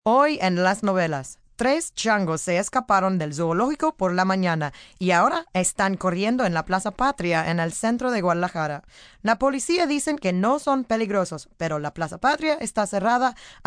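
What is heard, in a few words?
News 3 Chimps, Broadcast, news, female news item in Spanish on escaped chimpanzees.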